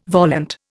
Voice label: "volant", Indian

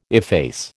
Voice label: "efface", American

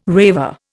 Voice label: "raver", Indian

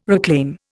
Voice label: "reclaim", Indian

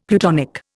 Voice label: "plutonic", Indian; Dictionary

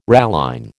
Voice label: "ralline", American

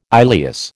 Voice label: "ileus", American

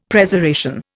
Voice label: "Preservation", Indian; Dictionary

